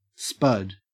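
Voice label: "spud", Australian English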